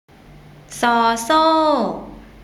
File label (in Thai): "ซ โซ่", Thai alphabet